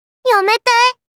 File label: "Yamete", Kawaii Voice; Memes